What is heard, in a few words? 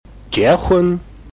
"jie2 hun1", Chinese